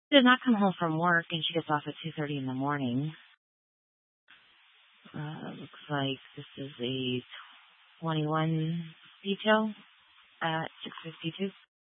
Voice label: Phx PD Dispatch, scanner